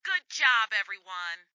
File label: Radio Chatter "Good job everyone!"